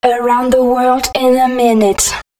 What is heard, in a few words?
female, spoken hooks